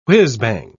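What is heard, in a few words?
"whizbang", English, British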